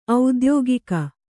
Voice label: "ಔದ್ಯೋಗಿಕ", Kannada